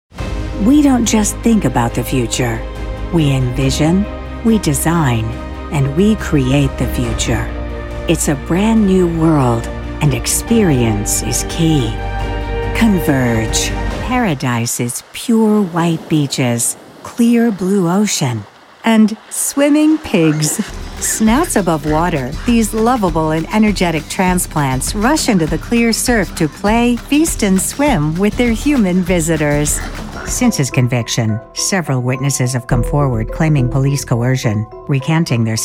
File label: NARRATION